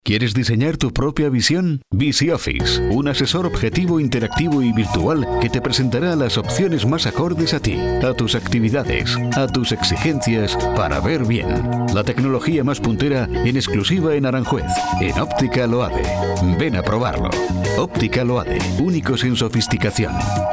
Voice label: Spanien M

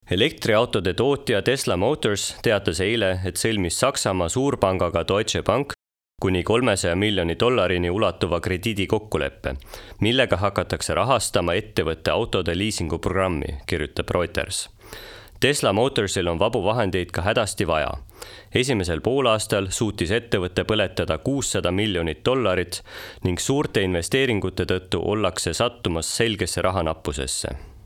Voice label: Estland M1, voiceactors

